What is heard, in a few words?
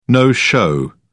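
"no-show", British English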